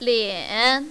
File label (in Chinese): "lian3", mandarin, female